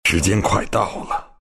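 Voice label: bottle, chinese; dota2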